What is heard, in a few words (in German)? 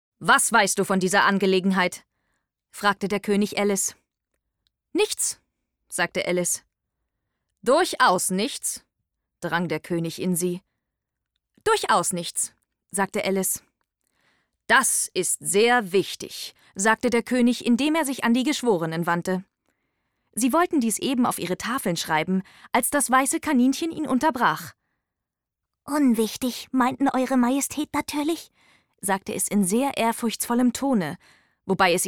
hoerbuch div. rollen